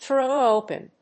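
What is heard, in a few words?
"throw open", English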